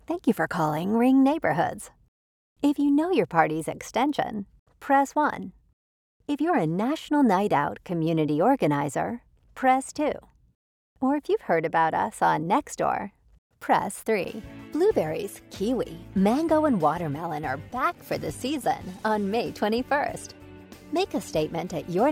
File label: IVR On hold